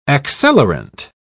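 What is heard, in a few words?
"accelerant", English, British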